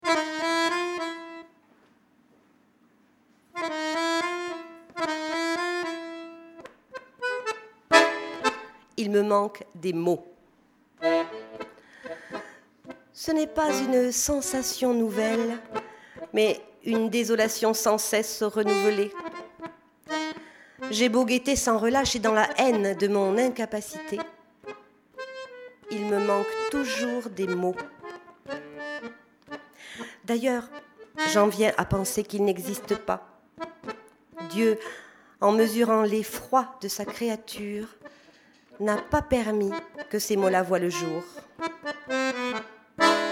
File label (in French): lecture publique musicale